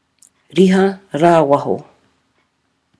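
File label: "riha rawaho", Maori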